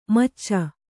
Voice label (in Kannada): "ಮೋಚ", Kannada